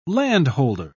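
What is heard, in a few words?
"landholder", British English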